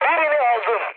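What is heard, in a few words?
Turkish player killed enemy, mood med, speech; War Thunder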